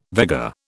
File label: "Vega", British